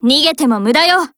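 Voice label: SKILL, Japanese; Grizzly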